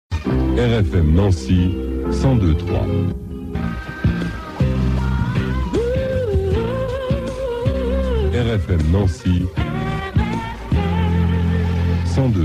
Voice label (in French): Liner + jingle; RFM Est; nancy